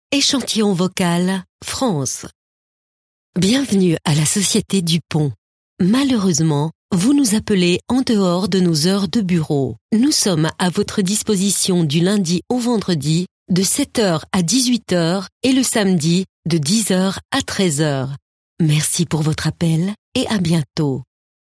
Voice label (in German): Telefonansage FR CH